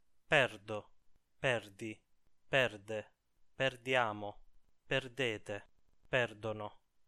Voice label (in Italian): perdere coniugazione, Italian